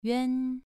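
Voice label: "yuan2", Chinese pronunciation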